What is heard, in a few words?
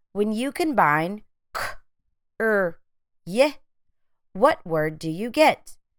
c r y phonemic blending